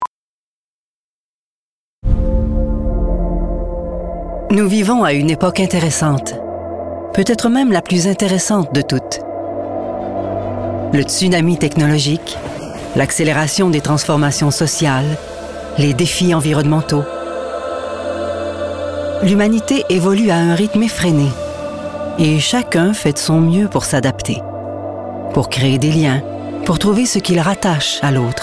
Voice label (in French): narration